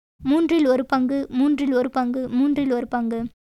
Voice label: Tamil

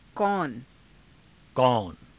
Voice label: "kauna", Hindi

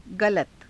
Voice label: "galata", Hindi

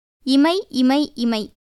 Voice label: Tamil; இமை; imai; [jiməi]; eyelid; 瞼

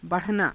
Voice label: "ba ranaa", hindi

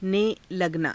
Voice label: "ne laganaa", Hindi; 【語幹- ने लगना 】; ･･･し始める

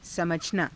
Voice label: "samajhanaa", hindi

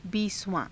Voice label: "biisavaa~", hindi

